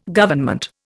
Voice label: "Government", Indian